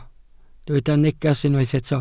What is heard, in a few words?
"neges", Welsh